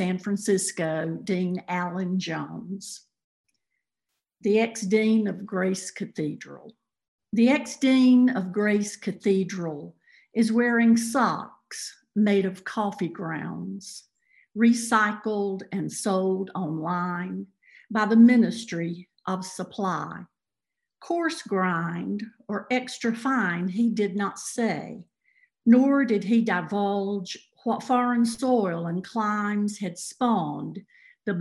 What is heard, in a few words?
Poem